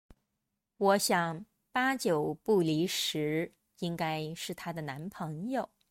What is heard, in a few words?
denoised